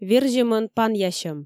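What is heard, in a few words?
"верзьӧмӧн панъясьӧм", Komi, female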